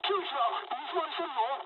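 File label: damaged water mood high, speech japanese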